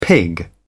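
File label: "pig", British English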